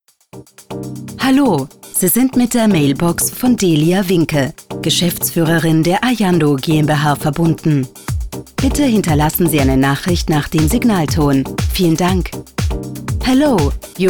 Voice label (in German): mobilbox ansage; ajando